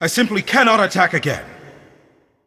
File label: Male Void Elf; ERROR I ATTACKED